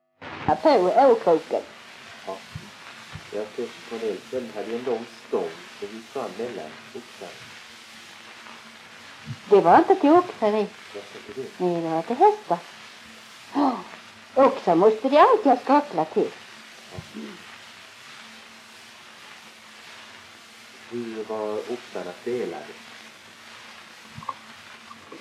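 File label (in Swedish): Tving, Swedish dialect; svenska sagor; Folkminnen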